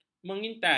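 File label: "mengintai", Malay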